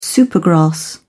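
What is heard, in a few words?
"supergrass", English, uk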